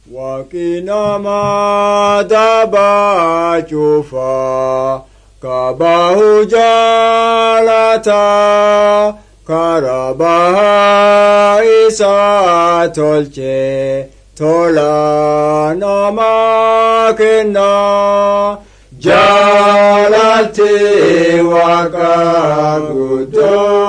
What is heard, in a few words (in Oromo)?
borana gabbra; words of life